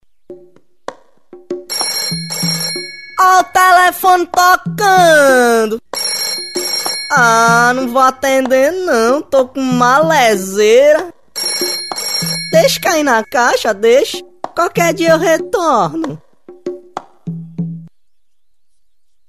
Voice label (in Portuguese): Celular De Baiano, olha o telefone tocando